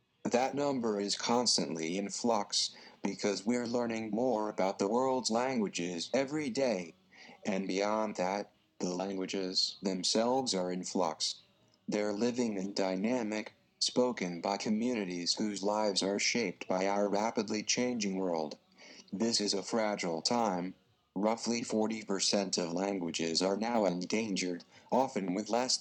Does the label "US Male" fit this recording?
Yes